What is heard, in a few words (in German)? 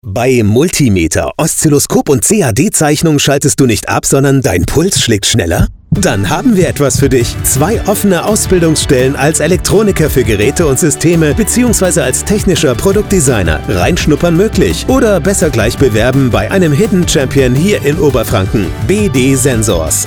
Spot technische Ausbildung